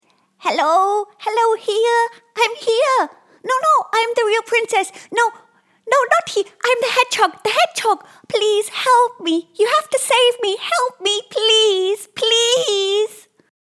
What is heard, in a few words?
Animation Dubbing UK